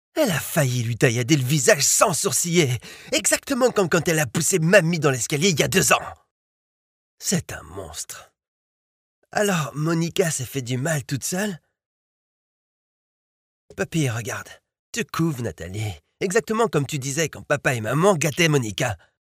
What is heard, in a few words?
locutor frances french voiceover